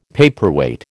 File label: "paperweight", American